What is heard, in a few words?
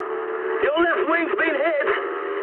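English left wing mood high; speech; War Thunder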